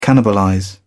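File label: "cannibalize", British English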